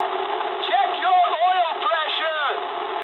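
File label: damaged oil mood high, speech English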